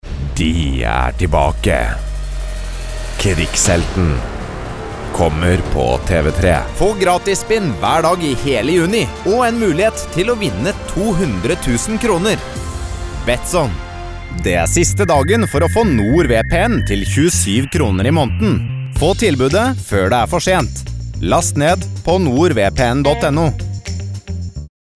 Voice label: norwegian promos